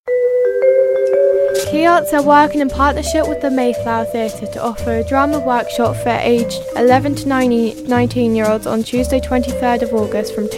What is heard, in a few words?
waves commercial